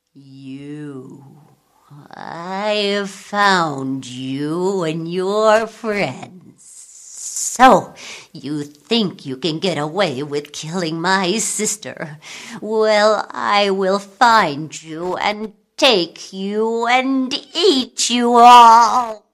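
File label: English US; Charge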